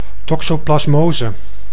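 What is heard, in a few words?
"toxoplasmose", Dutch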